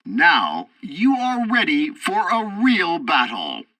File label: tutorial fighter victory, english; War Thunder